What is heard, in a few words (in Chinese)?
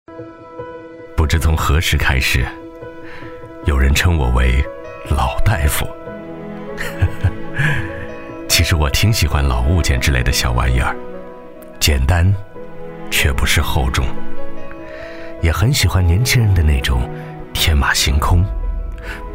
男-专题-人物解说（人物自白）; 仁心匠品